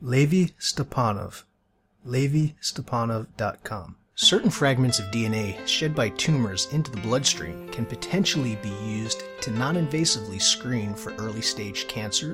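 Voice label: Commercial Narration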